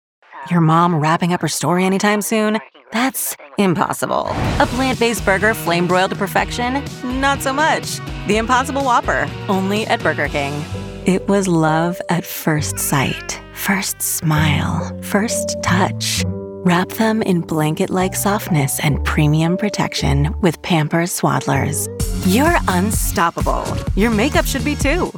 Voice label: Commercial